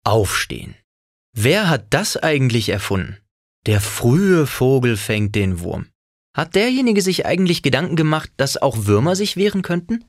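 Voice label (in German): Schauspiel, Dynamisch; Der Fruehe Vogel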